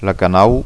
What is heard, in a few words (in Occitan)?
"lacanau", gasconha